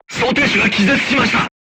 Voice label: voice message charger contused, crew tanks japanese